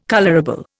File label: "colourable", Indian